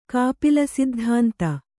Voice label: "ಕಾಪಿಲಸಿದ್ಧಾಂತ", Kannada

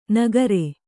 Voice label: "ನಕ್ಕರಿ", Kannada

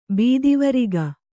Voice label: "ಬೆತ್ತವರಿಗೆ", Kannada

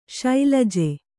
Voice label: "ಶೈಲಜೆ", kannada

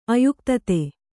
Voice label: "ಅಯುಕ್ತತೆ", Kannada

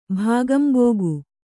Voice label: "ಭಾಗಂಬೋಗು", kannada

